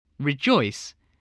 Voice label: "rejoice", spelling voice